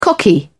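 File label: "cocky", English, British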